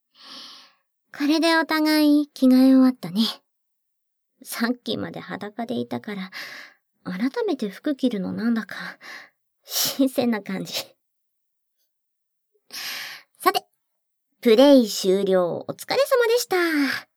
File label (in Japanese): お別れの挨拶, ASMR